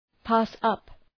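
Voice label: "pass up", English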